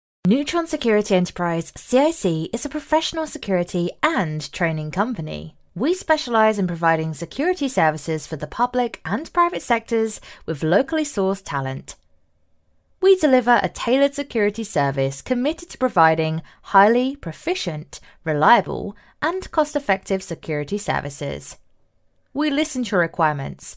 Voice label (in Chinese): 英式女声